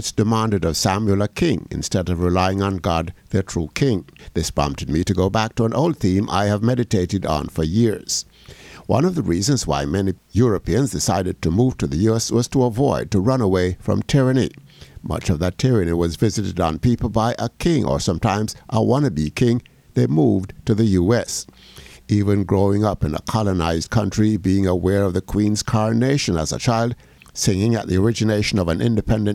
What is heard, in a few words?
COMMENTARY